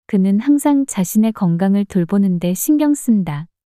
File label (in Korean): 그는 항상 자신의 건강을 돌보는 데 신경 쓴다 문장 여자